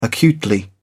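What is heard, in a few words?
"acutely", English, British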